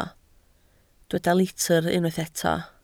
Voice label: "litr", Welsh

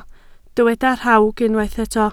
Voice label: "rhawg", Welsh

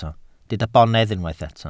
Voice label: "bonedd", welsh

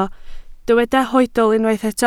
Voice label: "hoedl", Welsh

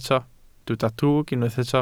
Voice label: "drwg", Welsh

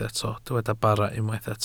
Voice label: "bara", Welsh

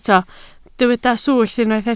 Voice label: "swllt", Welsh

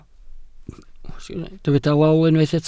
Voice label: "lol", Welsh

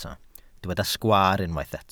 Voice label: "sgwaar", Welsh

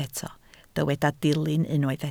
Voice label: "dilyn", Welsh

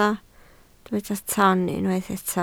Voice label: "toon", Welsh

